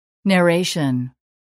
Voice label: narration